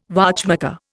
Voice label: "watchmaker", Indian